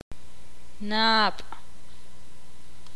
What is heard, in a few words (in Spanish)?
"lago", ixil